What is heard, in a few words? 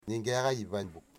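"I don't see you", Wamut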